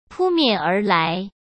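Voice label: "扑面而来", Mandarin Chinese; hsk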